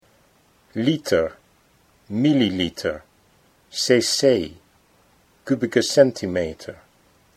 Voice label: "liter milliliter", Dutch